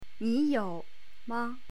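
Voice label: "ni3you3ma", Chinese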